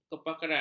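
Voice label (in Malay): "kepakaran", Malay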